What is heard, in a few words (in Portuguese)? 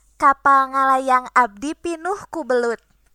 hovercraft, sundanes